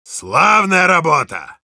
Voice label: good job, ru